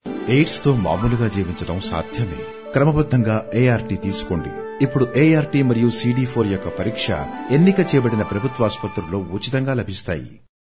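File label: telugu male voice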